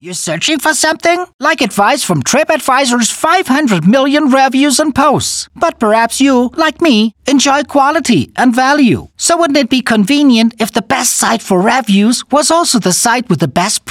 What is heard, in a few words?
Commercial; Tripadvisor